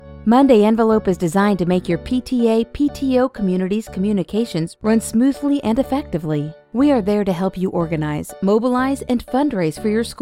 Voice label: Narration